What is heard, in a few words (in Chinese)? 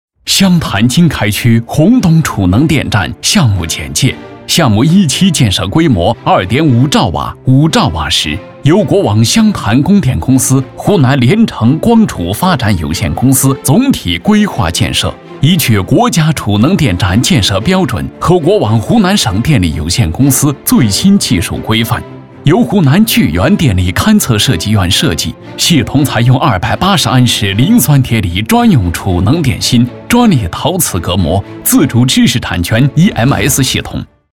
项目介绍端庄大气; 储能电站